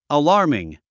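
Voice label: "alarming", English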